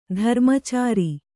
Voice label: "ಧರ್ಮಚಾರಿ", Kannada